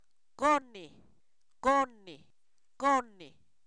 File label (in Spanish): cuál, Zapoteco, san miguel albarradas; preguntas